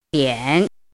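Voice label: "diǎn", Mandarin Chinese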